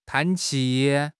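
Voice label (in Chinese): "叹气", Chinese; HSK6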